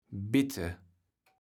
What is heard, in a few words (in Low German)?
"bitte", kaevels platt